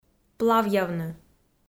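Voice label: "плавъявны", Komi, female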